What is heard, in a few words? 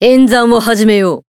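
Voice encounter first Japanese; xianhua; zodiac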